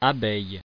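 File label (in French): "abeille" French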